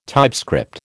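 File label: "typescript", American English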